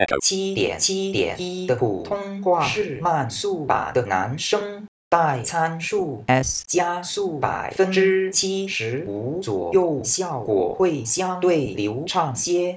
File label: mandarin; ekho 7.7.1